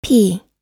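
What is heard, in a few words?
"plum", us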